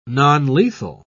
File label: "nonlethal", en uk